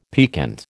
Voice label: "piquant", American

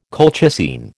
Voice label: "colchicine", American

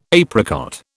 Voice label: "apricot", British

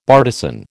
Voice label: "bartizan", American

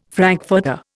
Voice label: "frankfurter", Indian

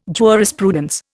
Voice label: "jurisprudence", Indian

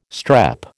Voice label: "strep", American

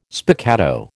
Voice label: "spiccato", American

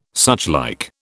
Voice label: "suchlike", British

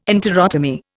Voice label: "enterotomy", Indian

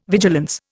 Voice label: "vigilance", Indian

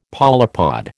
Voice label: "polypod", American

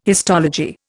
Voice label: "histology", Indian

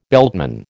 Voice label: "beltman", American

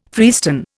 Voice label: "freestone", Indian

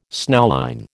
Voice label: "snowline", American

